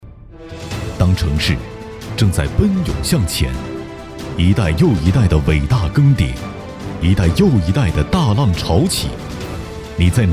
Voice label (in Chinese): 专题广告深沉力量; 保利地产